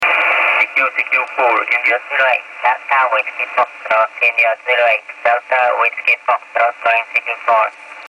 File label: ssb, 70mhz